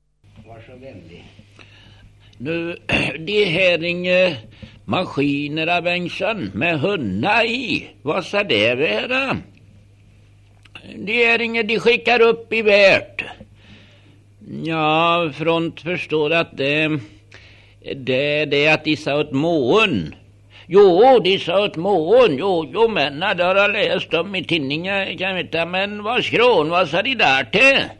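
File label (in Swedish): Gudhem Västergötland, Svenska sagor, dialekter; Folkminnen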